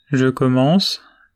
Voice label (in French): Je commence, French